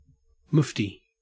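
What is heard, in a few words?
"mufti", Australian English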